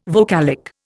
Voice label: "vocalic", Indian